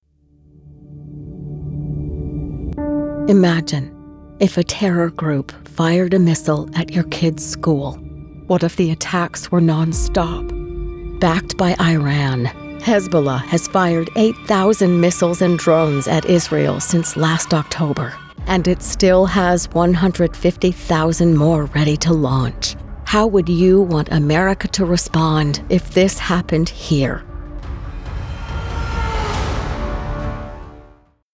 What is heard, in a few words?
Dramatic Doom & Gloom